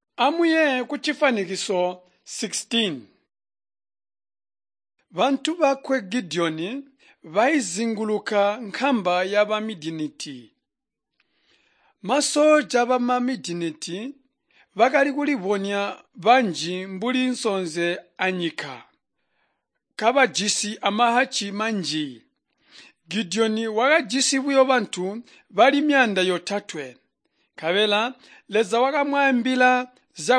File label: tonga plateau, drama; chitonga bsz nt drama